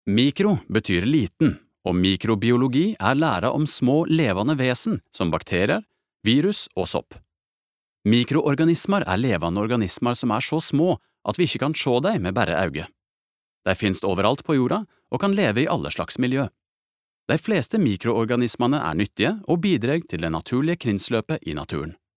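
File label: Norwegian nynorsk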